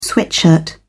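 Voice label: "sweatshirt", British English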